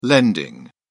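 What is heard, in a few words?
"lending", British English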